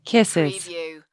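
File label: Woman Says Kisses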